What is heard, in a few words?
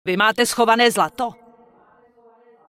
Night Elf Female err not enough money; wowpreklad; czwow